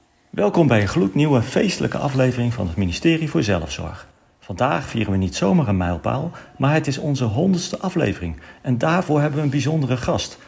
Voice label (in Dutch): Intro podcast; traditional chinese medicine